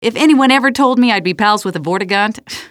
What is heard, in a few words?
question, female